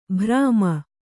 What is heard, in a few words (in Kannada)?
"ಭ್ರಾಮ", Kannada